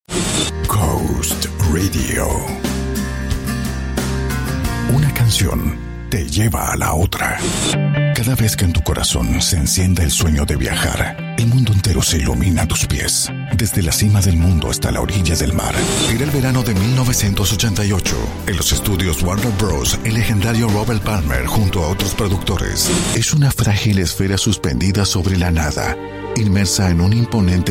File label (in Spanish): demo neutro